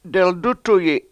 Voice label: "deldUtduyi7", Washo; Short One

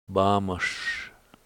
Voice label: "bAAmuS", Washo, muskrat

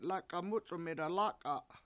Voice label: lAk$a7 MUc$1m 7ida lAk$a7 eleven, Washo

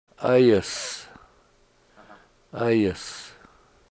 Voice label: "7ayys", Washo, antelope

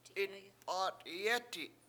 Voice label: "itp7AAt7iyEti", Washo; mens basket

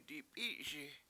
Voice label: I crawl along, Washo; dip$I7Si7

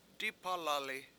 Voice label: I smell it, Washo; dipAlali7

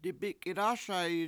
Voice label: "dibIkitASa7i", Washo

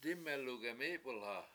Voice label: "dIme7lu gemIIp1lha" fill it with water, Washo

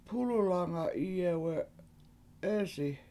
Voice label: "pUlulanga 7Iyewe7EEsi", washo; He Didnt Drive Anywhere

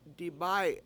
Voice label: "dibAy7" I take off, Washo

